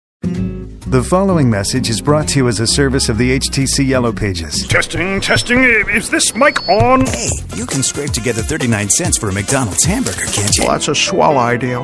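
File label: Commercial, real people male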